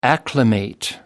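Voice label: "acclimate", British English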